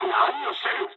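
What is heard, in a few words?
Japanese attack position mood low; War Thunder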